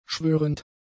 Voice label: "schwörend", German; adjectives

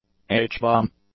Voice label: "H-bomb", English; nouns

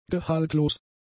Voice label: "gehaltlos", German; adjectives

